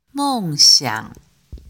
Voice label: chinese pronunciation en eng